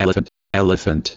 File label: Spearcon Elephant